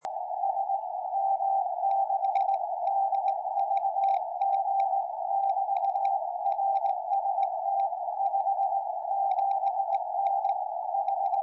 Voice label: 70cm, tropo

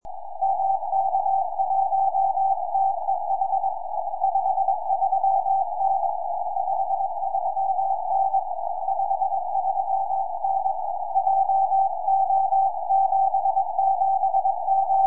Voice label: 70cm, tropo

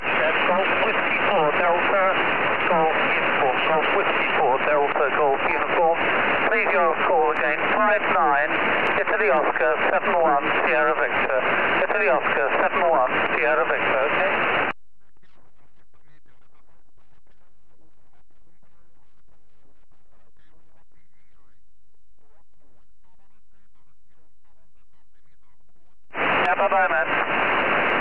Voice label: qso

